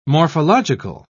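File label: "morphological", British English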